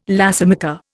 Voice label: "lacemaker", Indian

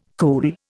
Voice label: "cool", Indian

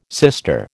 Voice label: "sister", American

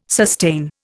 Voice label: "sustain", Indian

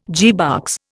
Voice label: "gearbox", Indian

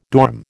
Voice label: "dorm", American

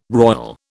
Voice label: "royal", British

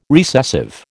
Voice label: "recessive", American

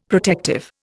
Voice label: "protective", Indian; Dictionary

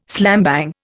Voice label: "slambang", Indian

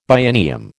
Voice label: "biennium", American

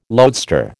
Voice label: "loadstar", American

